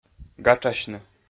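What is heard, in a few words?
"гатшасьны", Komi, male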